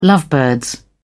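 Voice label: "lovebirds", British English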